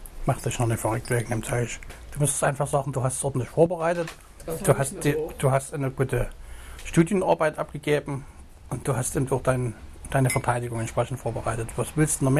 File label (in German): dresden Freundesgespraech